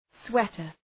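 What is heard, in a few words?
"sweater", English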